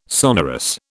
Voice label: "sonorous", British